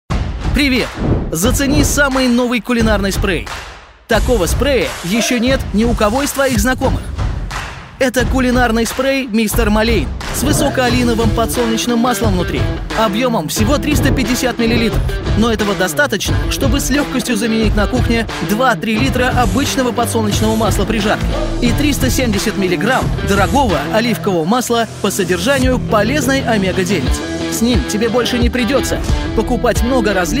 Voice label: russian animation